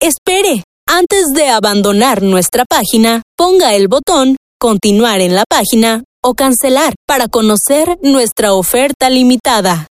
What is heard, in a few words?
IVR Spain Dry; J Factory